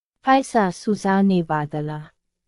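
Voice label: Myanmar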